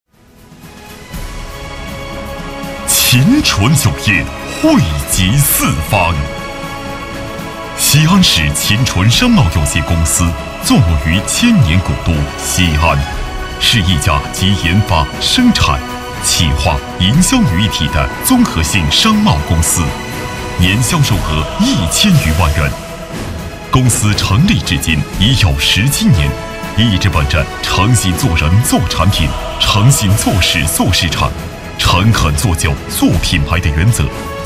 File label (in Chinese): 男国 宣传片 企业 浑厚; 商贸公司